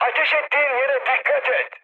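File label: Turkish player friendly fire, mood high, speech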